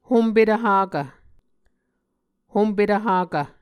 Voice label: "hompetv hakv", Muscogee

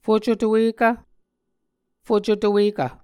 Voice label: "fucotowekkv", Muscogee

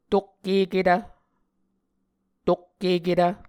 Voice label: "tokkeketv", Muscogee; Mvskoke Words with Repeated Consonants; Martin, Jack B., and Margaret McKane Mauldin. 2023. A Dictionary of Creek/Muskogee

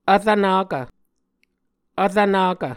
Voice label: "vrvnakv", Muscogee

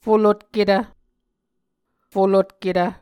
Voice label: "folotketv", Muscogee

